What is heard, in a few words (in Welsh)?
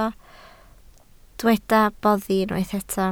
"boddi", Welsh